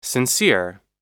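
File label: "sincere", US English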